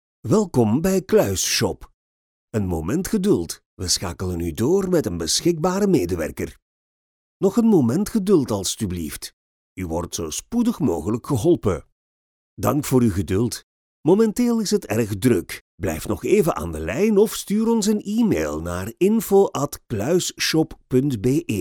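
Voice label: Flemish Belgium, male, voice over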